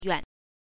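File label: "yuan", Mandarin Chinese, neutral tone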